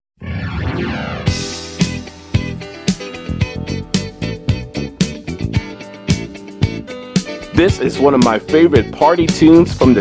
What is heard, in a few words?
voiceover music